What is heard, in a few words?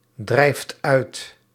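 "drijft uit", Dutch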